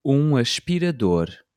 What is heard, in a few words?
"um aspirador", Portuguese